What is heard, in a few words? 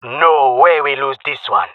round winning, jungle male; agents